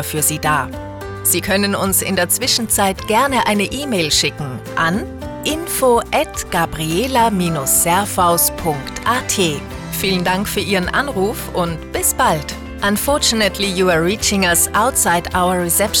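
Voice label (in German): Hotel Nachtansage Restaurant, Telefonanlagen; Hotel Gabriela; telefonansagen hotels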